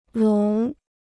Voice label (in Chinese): "聋", Chinese